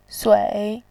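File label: "sui", Mandarin Chinese, third tone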